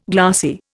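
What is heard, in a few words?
"glaucoma", Indian; Dictionary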